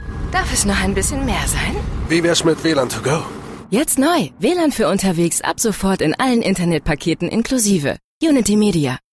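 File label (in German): advert, Lebendig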